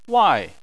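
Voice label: "wai", Chinese, fourth tone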